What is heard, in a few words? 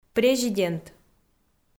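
"президент", Komi, female; kpv audio dict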